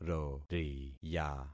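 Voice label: "roriya", concatenated syllables; Affixing experiment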